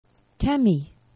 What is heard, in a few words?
"temi", Esperanto; geneva